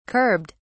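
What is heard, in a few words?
"curbed", British English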